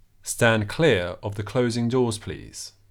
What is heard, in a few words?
stand clear of the closing doors, received pronunciation